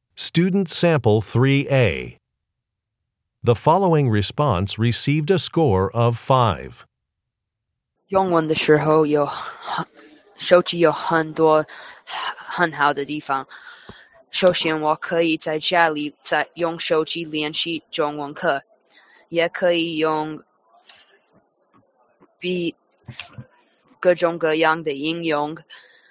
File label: chinese conversation